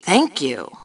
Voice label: Team Thanks, Female; T2 Female 02

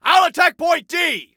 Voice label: ILL ATTACK, Male; T2 Male 01

